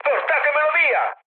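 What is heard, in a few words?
Italian aircraft damaged mood med, speech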